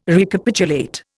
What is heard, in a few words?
"Recapitulate", Indian